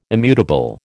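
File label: "immutable", American English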